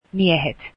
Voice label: finnish men